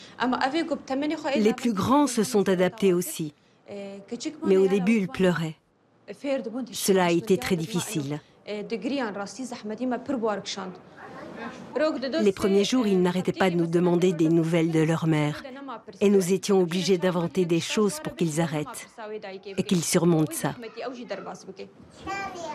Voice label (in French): enfants de Daech femme